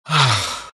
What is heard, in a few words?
happy; skywrath mage